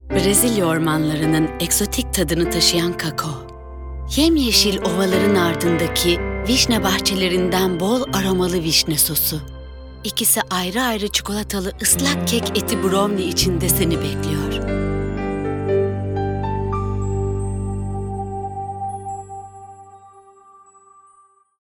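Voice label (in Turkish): duygusal motive, sicak; enerjik tempolu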